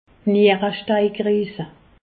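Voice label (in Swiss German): "nieresteinkriis", Alsace, Haut Rhin; medecine; colique nephrétique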